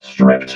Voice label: "stripped", robot